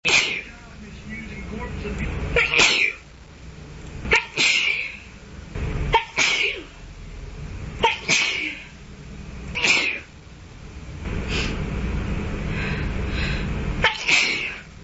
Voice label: after hiking, female